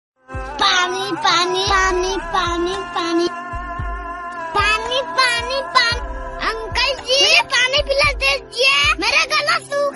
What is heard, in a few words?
hindi funny meme; uncle ji paani pila dijiye